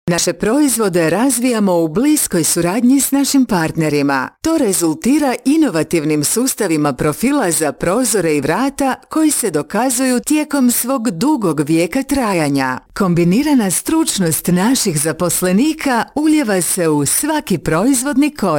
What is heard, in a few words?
Narration, croatian